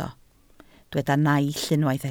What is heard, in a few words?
"naill", Welsh